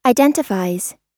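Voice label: "identifies", US English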